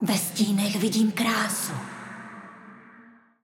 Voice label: cs-cz; morgana